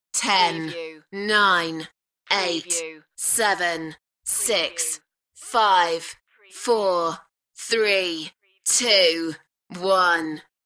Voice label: UK female Countdown from 10 to 1; beanstalk audio